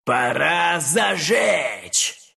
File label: respawn, ru; Dota 2